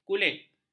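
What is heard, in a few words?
"kulit", Malay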